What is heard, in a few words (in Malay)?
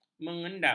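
"mengendap", Malay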